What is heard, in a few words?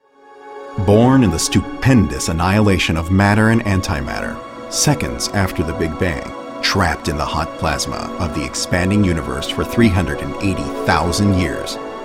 narration cosmology